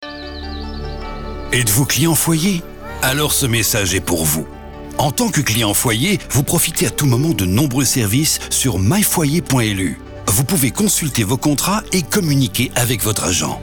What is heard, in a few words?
Commercial Normal